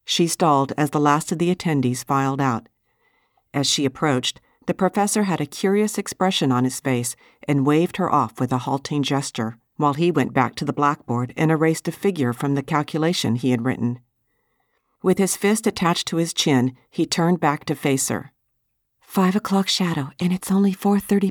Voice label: Fiction MF; Places You Will Be From